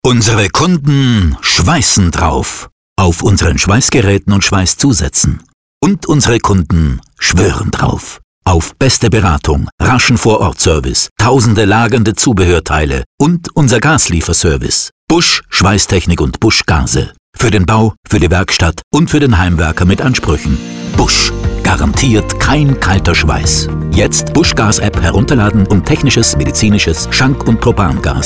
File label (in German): Radiospot Schweisstechnik; Busch